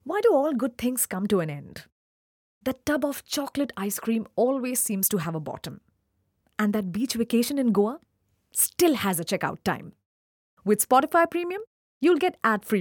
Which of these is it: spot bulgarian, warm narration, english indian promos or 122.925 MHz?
english indian promos